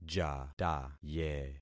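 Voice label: "jataye", concatenated syllables; Affixing experiment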